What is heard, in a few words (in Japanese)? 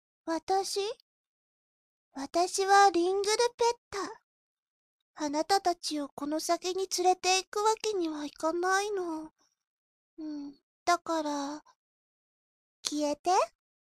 淡々と喋る悪役の少女; SAME TIME; リングルペッタ; 「私？私はリングルペッタ。貴方達をこの先に連れて行くわけには行かないの･･･だから･･･消えて？